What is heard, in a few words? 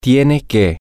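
she has to, Spanish, male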